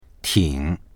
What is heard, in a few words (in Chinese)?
"ting3", voices; 挺; 結構、かなり